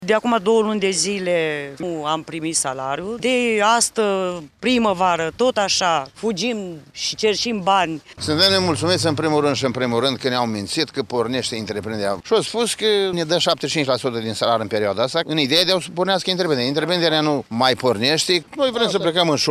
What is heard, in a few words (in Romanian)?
vox pop protest